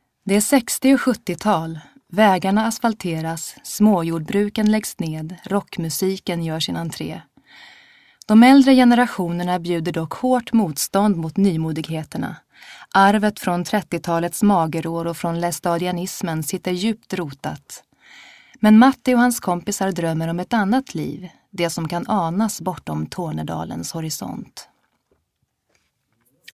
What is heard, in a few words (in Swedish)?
svensk kvinna